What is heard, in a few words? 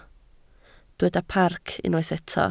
"parc", Welsh